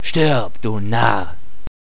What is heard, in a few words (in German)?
Narr